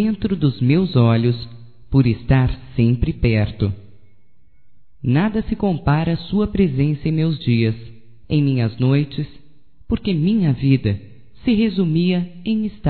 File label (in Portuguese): (F); Românticas